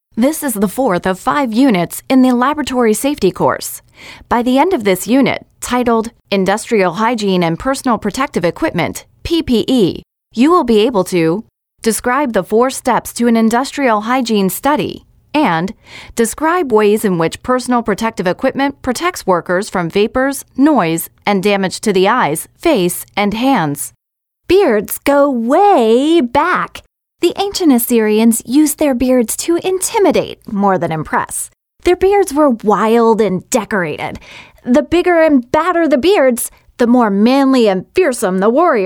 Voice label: US English eLearning